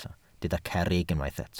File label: "cerrig", Welsh